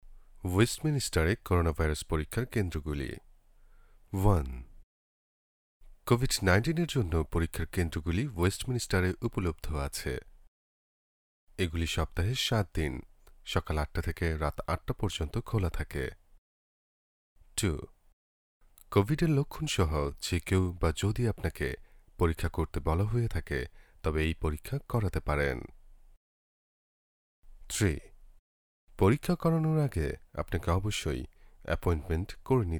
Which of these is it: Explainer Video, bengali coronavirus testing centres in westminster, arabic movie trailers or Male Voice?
bengali coronavirus testing centres in westminster